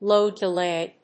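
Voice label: "LOW DELAY", English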